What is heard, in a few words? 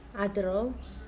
"chicken egg", Mokilese